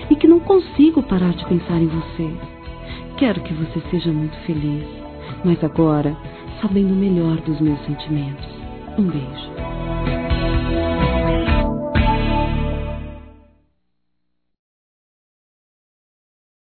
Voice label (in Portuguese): Telemensagens Amor Fem; Telemensagens 2017